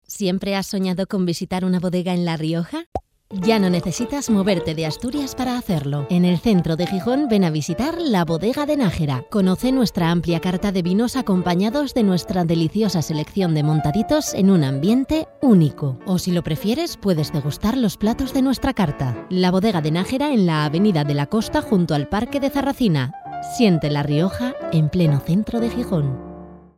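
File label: Spanish Spain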